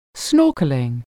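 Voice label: "snorkelling", English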